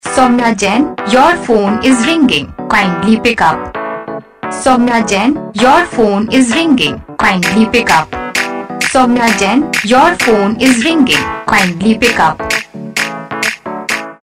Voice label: your phone is ringing kindly pick saying

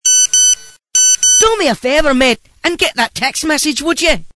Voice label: Get That Text Message Accent, voice; MessageTones Voice

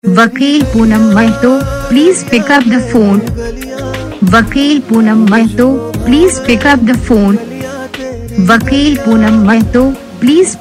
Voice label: pick up phone saying, Ringtones

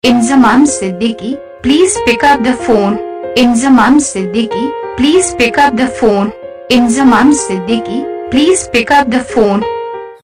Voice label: pick up the phone saying, Ringtones; Your Name Ringtones A To Z Names

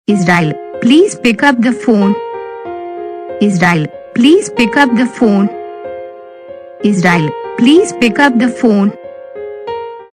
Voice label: pick up phone saying, Ringtones; Your Name Ringtones A To Z Names

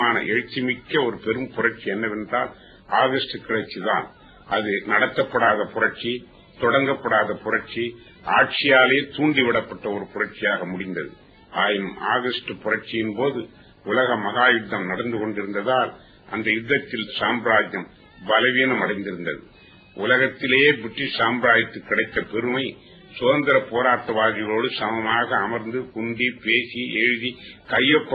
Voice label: Speech, Tamil; Patriotic; Patriotic (Tamil) Vol 5

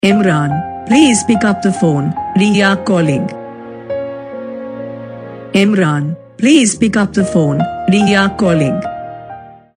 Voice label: please pickup calling saying, Ringtones; Your Name Ringtones A To Z Names

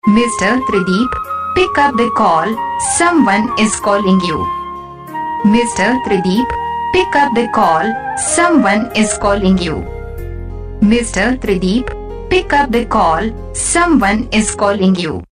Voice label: Pick Up The Phone, Ringtones

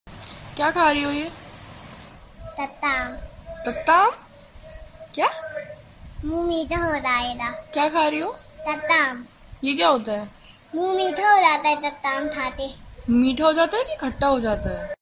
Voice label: Funny Dialogue Ringtone

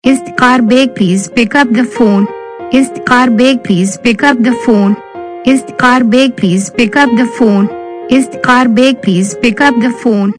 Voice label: pick up phone saying, Ringtones; Your Name Ringtones A To Z Names

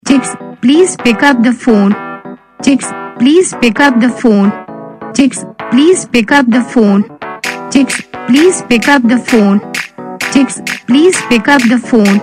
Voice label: chicks pick up the phone saying; Your Name Ringtones A To Z Names

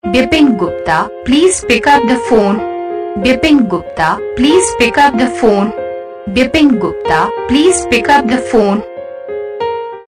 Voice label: pick up the phone saying, Ringtones

